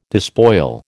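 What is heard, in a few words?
"despoil", American English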